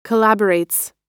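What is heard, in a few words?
"collaborates", US English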